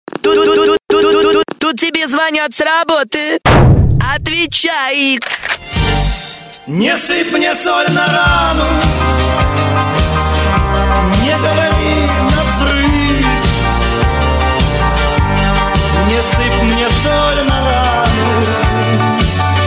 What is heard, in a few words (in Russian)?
telefon zvonok s raboty